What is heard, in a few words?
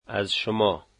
your, dari